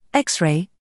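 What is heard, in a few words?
"x", British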